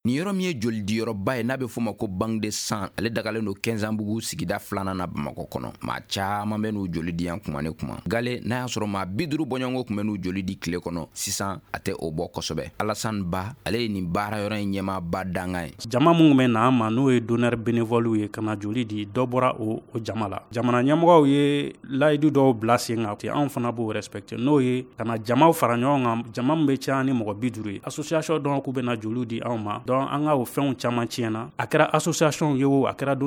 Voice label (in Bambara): magazine bamanankan